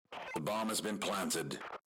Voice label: bomb planted, radio